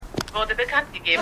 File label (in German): TVSprecherin